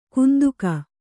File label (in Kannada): "ಕಂತೆಗ", Kannada